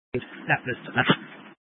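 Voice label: Incident Tactical 1, Scanner audio recording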